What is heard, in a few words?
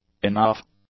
"enough", English; adjectives